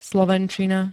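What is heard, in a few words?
"slovenčina", Slovak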